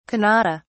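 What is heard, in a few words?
"kannada", British English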